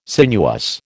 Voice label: "sinuous", American

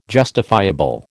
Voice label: "justifiable", American

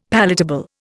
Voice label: "palatable", Indian; Dictionary

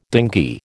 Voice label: "dinghy", American

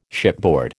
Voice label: "shipboard", American

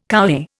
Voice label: "kowhai", Indian

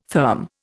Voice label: "therm", Indian

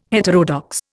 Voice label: "heterodox", Indian

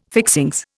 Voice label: "fixings", Indian

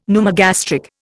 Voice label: "pneumogastric", Indian; Dictionary

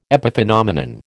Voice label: "epiphenomenon", American

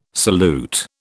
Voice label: "salute", British

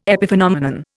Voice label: "epiphenomenon", Indian

